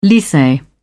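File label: "lycee", British English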